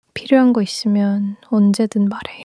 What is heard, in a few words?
sadness, monologue